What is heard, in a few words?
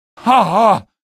shock, male; video games; npc; source engine; episodic; episode 1